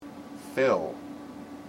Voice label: "fill", IPA